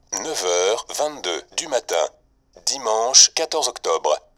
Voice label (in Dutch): F man